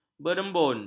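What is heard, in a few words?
"berembun", Malay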